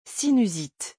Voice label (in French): "sinusite" French; vocabulary; doctors office